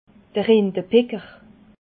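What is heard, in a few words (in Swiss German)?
"rindepicker", Alsatian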